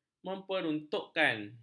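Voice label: "memperuntukkan", Malay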